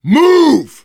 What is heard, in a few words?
hurry up, voice, biker; Left 4 Dead 2; left4dead2 dlc3; survivor